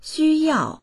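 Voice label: "xu1yao4", Mandarin Chinese; 需要; 必要とする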